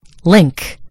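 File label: "link", British English; gcompris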